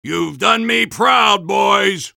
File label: soldier cheers; vo; tf2